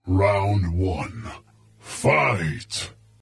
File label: battle narrator round 3 fight